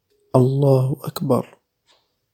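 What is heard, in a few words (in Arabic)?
"الله أكبر", Arabic, Egypt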